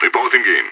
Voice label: reporting in, radio; SAS